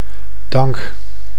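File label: "dank", Dutch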